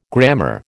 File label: "grammar", American